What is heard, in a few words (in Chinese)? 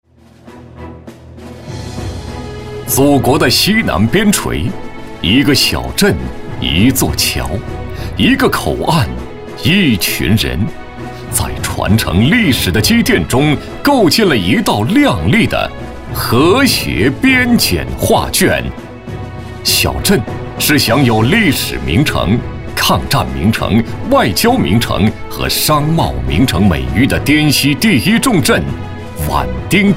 男 专题 军队